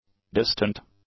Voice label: "distant", English; adjectives

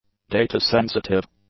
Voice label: "data-sensitive", english; adjectives

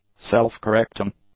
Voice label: "self-correcting", English; adjectives

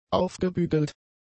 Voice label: "aufgebügelt", German; adjectives

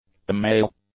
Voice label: "email", English; nouns

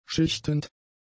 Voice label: "schichtend", German; adjectives

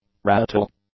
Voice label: "rattle", English; nouns

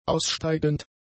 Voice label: "aussteigend", German; adjectives

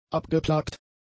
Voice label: "abgeplagt", German; adjectives

